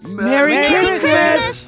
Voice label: merry christmas group

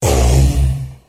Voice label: "um" giant, Voice; ooh ahh; uh um

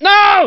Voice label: "no", angry; Voice; expletives

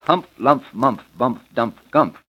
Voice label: hump lump dump mump gump, Voice gibbrish

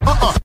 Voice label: "uh uh" male, Voice; ooh ahh; uh um

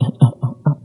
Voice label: "uh uh uh uh", Voice

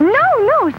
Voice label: no no, girl; Voice; expletives

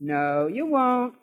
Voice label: no you won't, female; Voice; expletives

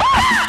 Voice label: "ow" nongender

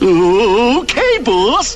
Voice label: "okay boss", expletives

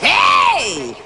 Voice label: "hey" male

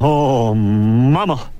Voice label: "oh momma", voice; ooh ahh

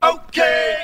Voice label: okay CHANT

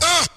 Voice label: "uh", male

